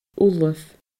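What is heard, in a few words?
"ullamh", Scottish Gaelic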